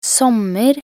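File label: "sommer", Norwegian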